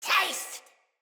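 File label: Attack Corrupted Sprouts, en; Dialog; Battle Barks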